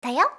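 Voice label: "dayo" Japanese; kumiawase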